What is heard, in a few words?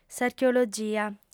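"s'archeologia", Sardinian, female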